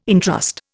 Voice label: "entrust", Indian

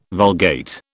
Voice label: "Vulgate", British

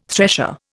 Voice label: "thresher", Indian

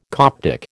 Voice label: "Coptic", American

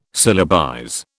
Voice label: "syllabize", British

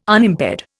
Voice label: "Unimpaired", Indian

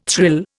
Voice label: "thrill", Indian

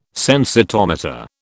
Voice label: "sensitometer", British

